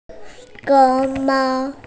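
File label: "panda", hearing impaired; xiong2mao1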